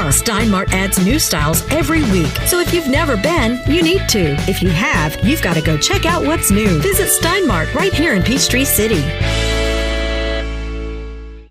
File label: Kids; Steinmart